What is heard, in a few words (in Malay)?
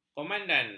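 "komandan", Malay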